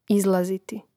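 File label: "izlaziti", Croatian; mreznik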